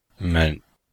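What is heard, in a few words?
"men5", Chinese; Cours-de-Chinois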